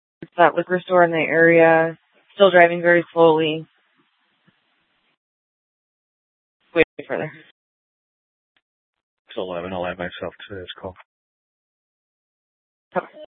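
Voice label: Scottsdale PD North Dispatch; Nth Dispatch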